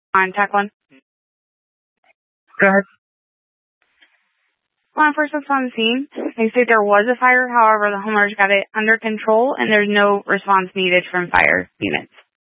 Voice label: Tactical Incidents, scanner